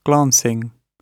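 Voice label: "glancing", British English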